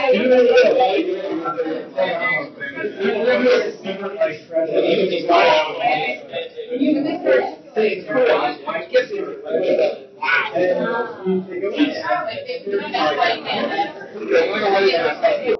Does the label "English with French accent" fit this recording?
No